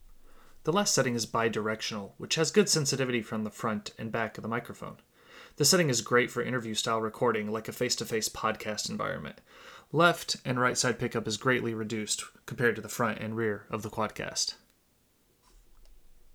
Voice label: Quadcast Bidirectional